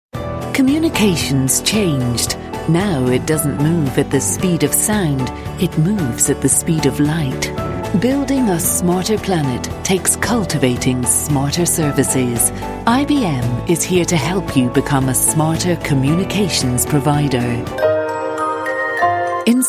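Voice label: narrative, female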